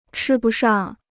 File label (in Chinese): "吃不上", Chinese